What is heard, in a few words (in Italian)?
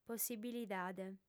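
"possibilidade", lingua sarda, fem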